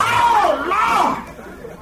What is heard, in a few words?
"oh lord", voice